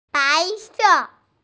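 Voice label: "bai2se4" Mandarin Chinese, female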